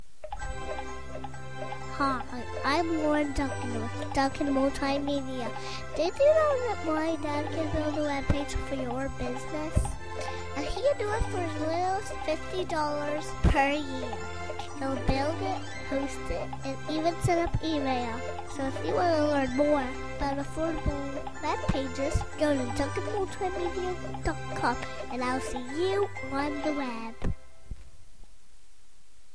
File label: Commercial Kindergarten